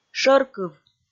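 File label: "шӧркыв", Komi, female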